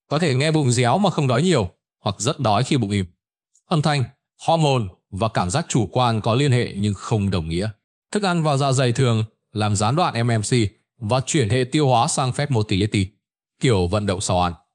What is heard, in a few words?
narration